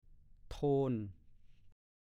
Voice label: "โทน", ภาษาโคราช; กลองที่ทำด้วยดินเผา ใช้หนังงูเหลือมหรือหนังตะกวดขึงด้านเดียวใช้ตีประกอบรำวง เรียกว่า รำโทน

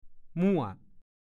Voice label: "มั่ว", ภาษาโคราช

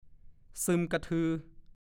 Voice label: "ซึมกะทือ", ภาษาโคราช; 1. ซึมเซา หงอยเหงาไม่พูดจา

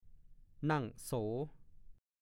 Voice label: "นั่งโส", ภาษาโคราช; ลักษณะการนั่งเป็นกลุ่มในอาการซึมเศร้า หรือคุยกันสัพเพเหระ

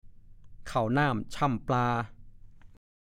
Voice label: "เข่าน่ำช่ำปลา", ภาษาโคราช

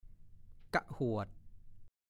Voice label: "ก้ะหวด", ภาษาโคราช

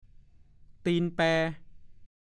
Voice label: "ตีนแป", ภาษาโคราช; เท้าพิการต้องแตะแคงเท้าเดิน

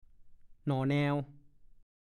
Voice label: "หน่อแนว", ภาษาโคราช; ดูคำว่า แนว

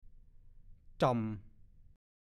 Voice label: "จ่อมหง่อม", ภาษาโคราช; งอตัว ห่อตัว อาการนั่งชันเข่าค้อมหลังลง

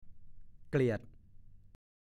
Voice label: "เกลียด", ภาษาโคราช; รังเกียจ ไม่อยากเข้าใกล้

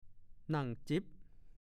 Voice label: "นั่งจิ๊บ", ภาษาโคราช

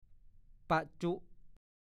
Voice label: "ปะจุ๊", ภาษาโคราช; บรรจุ

